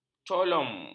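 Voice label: "coulomb", Malay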